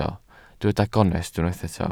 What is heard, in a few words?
"gonest", Welsh